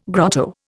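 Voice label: "grotto", Indian English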